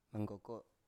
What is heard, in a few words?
"manggoko", Biak